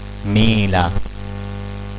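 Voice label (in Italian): "mela", Italian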